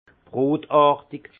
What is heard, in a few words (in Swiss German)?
"rotartig", alsace; vin; rougeâtre